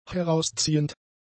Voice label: "herausziehend", German; adjectives

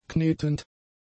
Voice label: "knetend", German; adjectives

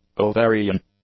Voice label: "ovarian", English; adjectives

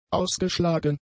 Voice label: "ausgeschlagen", German; adjectives

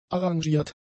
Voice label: "arrangiert", German; adjectives

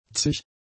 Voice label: "zig", German; adjectives

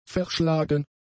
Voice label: "verschlagen", German; adjectives

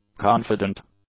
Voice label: "confident", English; adjectives

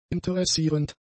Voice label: "interessierend", German; adjectives

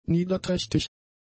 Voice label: "niederträchtig", German; adjectives

